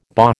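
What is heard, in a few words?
"bah", American English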